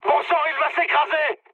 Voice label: aircraft destroyed mood high, speech fr

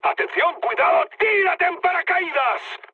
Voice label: bailout, mood high, speech; War Thunder

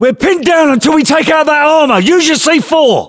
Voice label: voiceovers, US; english

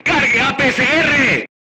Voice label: voice message load APCR, crew tanks spanish

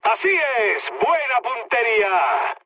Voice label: bomb success mood med; speech; War Thunder

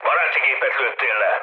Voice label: player killed ally, mood low, speech hun; War Thunder

